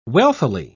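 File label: "wealthily", British English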